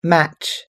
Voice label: "match", us english

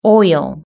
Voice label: "oil", british english

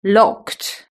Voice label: "locked", british english

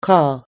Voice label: "call", us english

